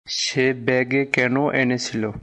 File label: Bengali, Bangladeshi Accent; Se bag kano enechilo?